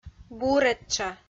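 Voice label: "буретша", Komi, female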